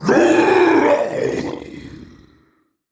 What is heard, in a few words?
Male Dragon Death; Ultraxion